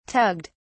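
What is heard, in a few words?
"tugged", British English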